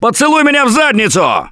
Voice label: reaction negative, russian; Gambler

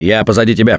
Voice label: Lead On, russian; Gambler

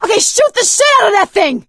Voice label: battle cry tank, survivor voice; Left 4 Dead 2; producer

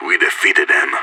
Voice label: radio bot end solid, player vo; idf

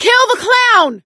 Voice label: see clowns, survivor voice; Left 4 Dead 2; producer

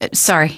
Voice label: "sorry", female; npc; Garry's Mod; hl2

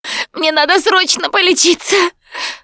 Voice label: going to die, russian; Producer

